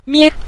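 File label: "mie", Mandarin, neutral tone; 旀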